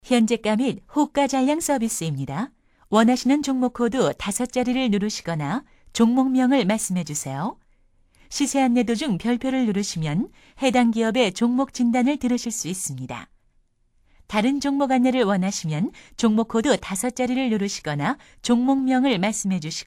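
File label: Korean, female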